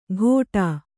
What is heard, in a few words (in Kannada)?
"ಘೋಟಾ", Kannada